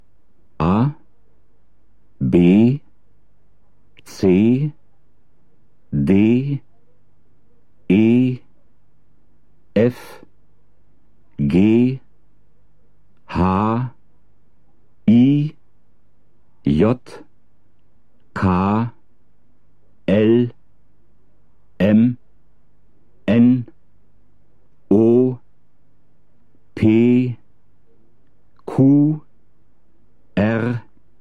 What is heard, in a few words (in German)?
deutsch alphabet